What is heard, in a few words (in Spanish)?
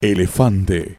"elefante", es